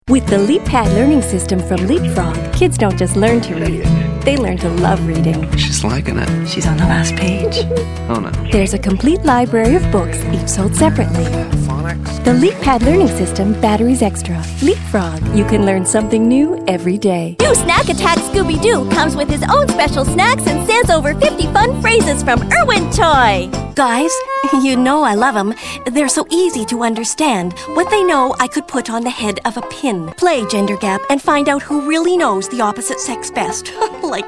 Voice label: commercial female